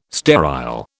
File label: "sterile", British